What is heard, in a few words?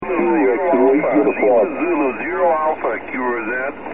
21 ssb